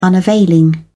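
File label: "unavailing", British English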